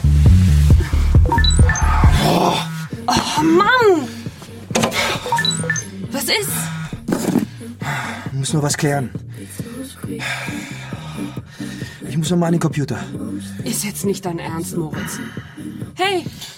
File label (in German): Hoerspiel